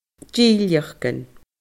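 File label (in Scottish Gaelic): "dilleachdan", Scottish Gaelic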